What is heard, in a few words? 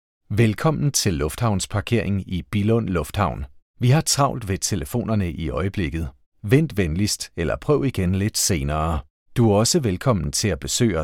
Danish ivr